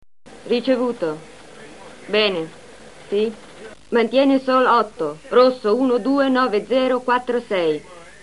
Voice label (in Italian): voci italiane; Harrington; Identificato